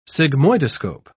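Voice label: "sigmoidoscope", English, British English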